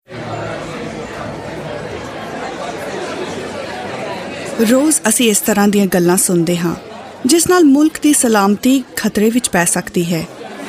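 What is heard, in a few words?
Punjabi general; GoLocalise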